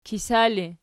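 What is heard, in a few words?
"kisa" ergative, Archi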